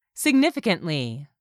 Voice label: "significantly", english; 3000 words